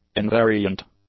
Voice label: "invariant", english; adjectives